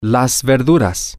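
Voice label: the vegetables, Spanish, male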